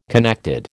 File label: "connected", American